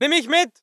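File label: voice german infantry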